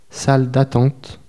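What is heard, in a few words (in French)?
"salle d attente", French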